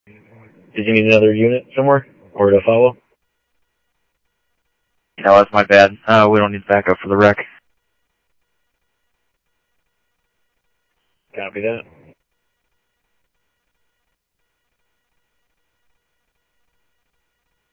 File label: Phoenix PD, scanner; PHOENIX PD-200 A; ThompsonPeakHigh